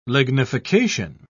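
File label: "lignification", English, British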